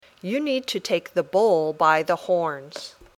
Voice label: Dictation